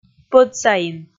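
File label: "пӧдсаин", Komi, female; kpv audio dict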